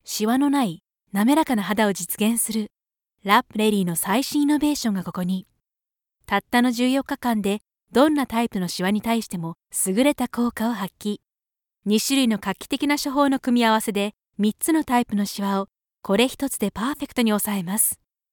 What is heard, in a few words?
Beauty commercial